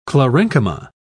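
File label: "chlorenchyma", English, British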